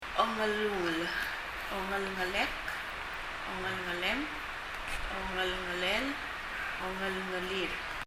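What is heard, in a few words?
"ongelungel" Palauan, shoulder; 肩; 発音; 身体の各部位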